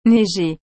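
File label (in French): "neiger", French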